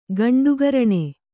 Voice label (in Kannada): "ಗಂಡುಗರಣೆ", Kannada